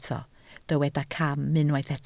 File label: "cam", Welsh